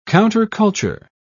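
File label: "counterculture", British English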